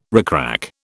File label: "rickrack", British